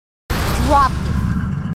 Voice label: marines female; h2dialogue